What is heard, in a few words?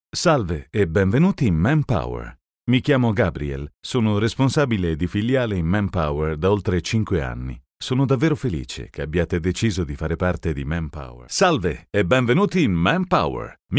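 Italian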